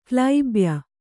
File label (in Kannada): "ಕ್ಲೈಬ್ಯ", kannada